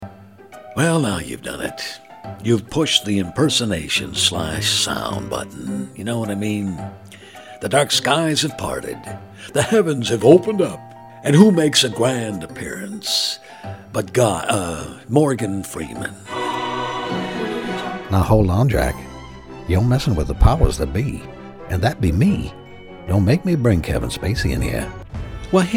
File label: impressions